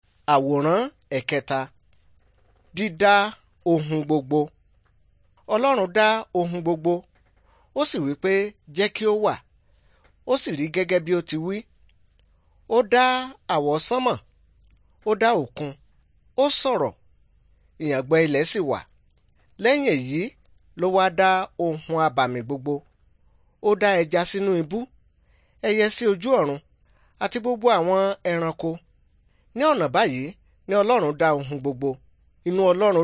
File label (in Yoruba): good news yoruba